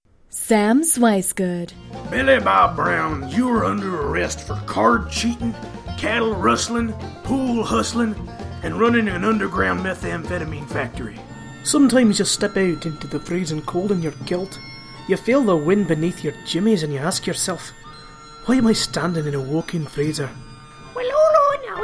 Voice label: Accent